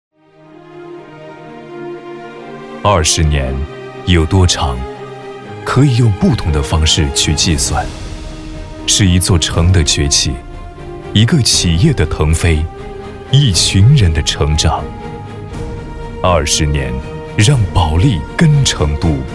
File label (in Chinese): 男, 专题, 大气, 品质, 房地产集团; 宝利根